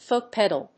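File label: "foot-pedal", English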